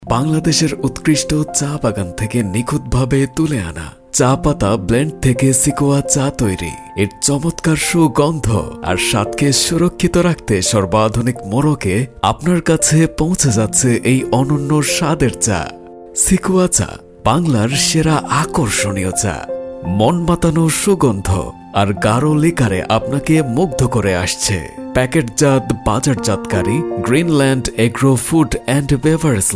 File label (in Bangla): ads voice bangla; special tea